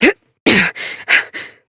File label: Horse Mount Adult, Dialog; Duviri